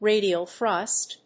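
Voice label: "radial-thrust", English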